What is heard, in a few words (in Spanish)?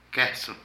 Olla, Zapoteco de Petapa; Vocabulary